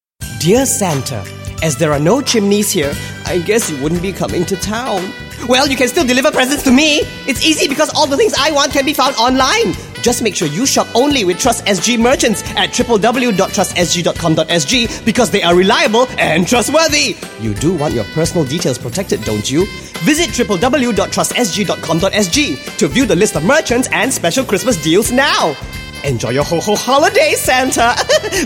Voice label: English Singaporean Male; IDA

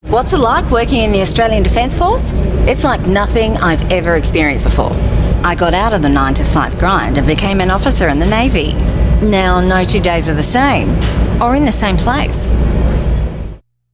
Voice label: AU English, Australian English; Australian Defence Force

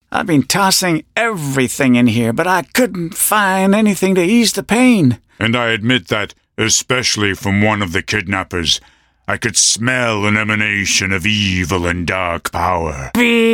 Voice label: US English Character Voices

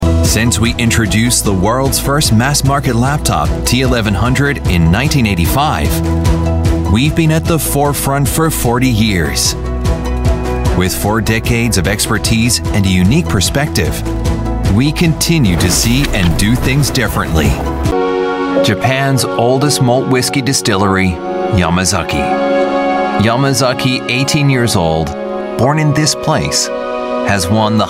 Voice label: US English Corporate

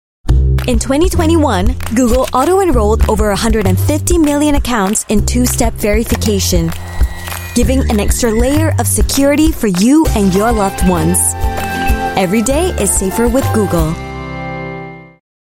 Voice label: Asian English; Google

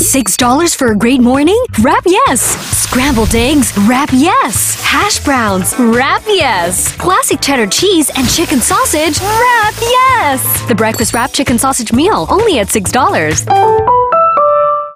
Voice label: Asian English wrap; McDonalds McSaver

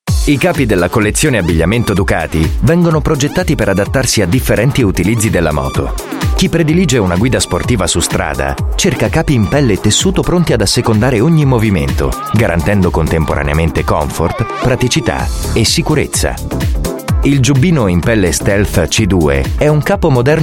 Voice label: Italian

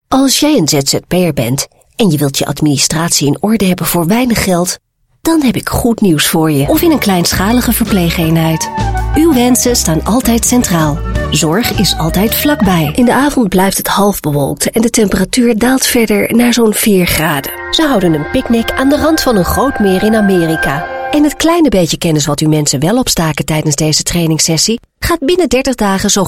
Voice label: Dutch Female Corporate

